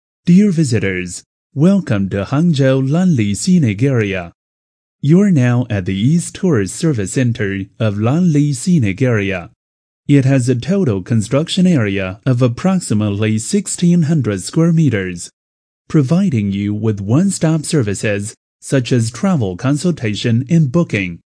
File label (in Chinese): 男 英文 景区解说